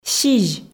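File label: "сизь", Komi, female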